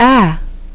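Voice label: vowel ae, English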